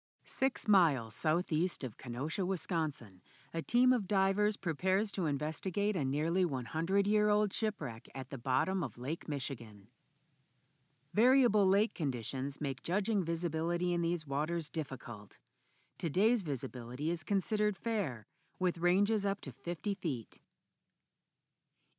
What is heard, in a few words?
narrator submission; Shipwrecks